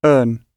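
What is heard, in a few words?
"earn" English word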